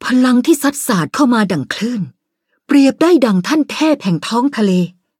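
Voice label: level up, Thai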